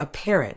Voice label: "apparent", Medical English; əˈpær.ənt; uh-PAR-uhnt

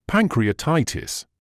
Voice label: "pancreatitis", English

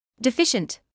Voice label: "deficient", English

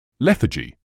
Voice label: "lethargy", English

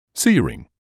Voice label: "searing", English

